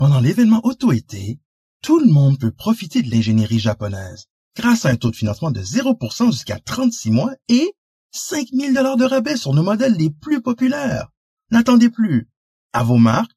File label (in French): pub AUTO ETE